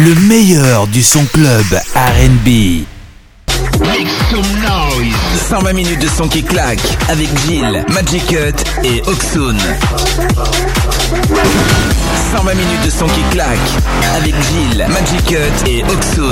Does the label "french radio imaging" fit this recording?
Yes